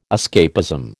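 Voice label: "escapism", American English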